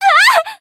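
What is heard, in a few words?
Voice damaged, japanese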